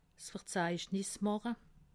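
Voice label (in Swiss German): "verzeichnismacher", Alsatian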